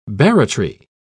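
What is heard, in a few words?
"barratry", British English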